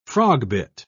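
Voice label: "frogbit", British English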